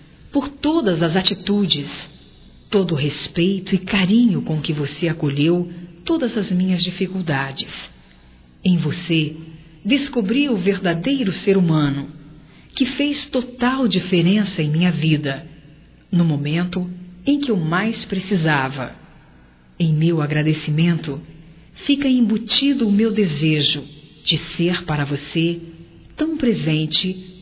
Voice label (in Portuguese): Agradecendo Ajuda Feminino, Telemensagens, Só Voz; Telemensagens 2017